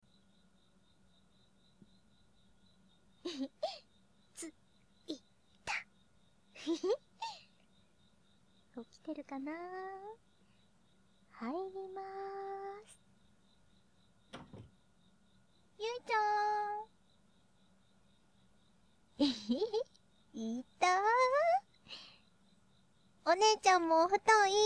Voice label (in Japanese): ぐっすり眠れる耳かきボイス; ぐっすりバージョン